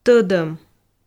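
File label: "тӧдӧм", Komi, female; audio dict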